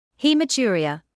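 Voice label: "haematuria", British English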